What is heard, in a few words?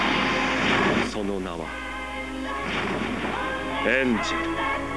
narrator